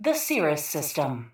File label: PA System